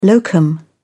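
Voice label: "locum", English, British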